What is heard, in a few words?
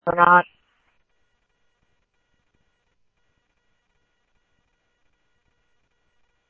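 Simulcast Chandler Patrol A, scanner; Chandler-Ptrl A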